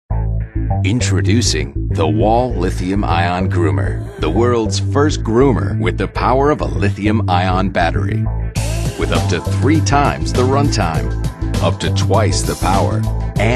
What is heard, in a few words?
tough gritty